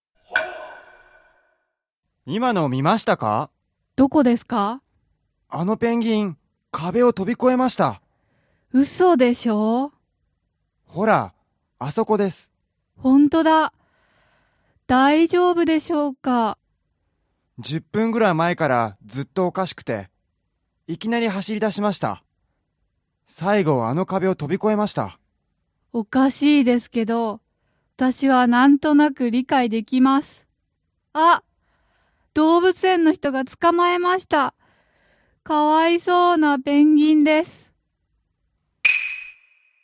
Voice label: dialog; JapanesePod101; Beginner Season 1